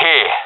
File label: in position, professional epic; vo; Counter Strike Model Pack; CS:GO